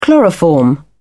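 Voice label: "chloroform", English, British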